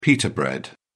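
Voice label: "pitta", British English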